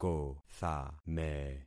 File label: "kothame", concat syllables; Affixing experiment